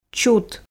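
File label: "чут", Komi, female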